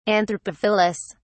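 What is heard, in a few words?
"anthropophilous", English, British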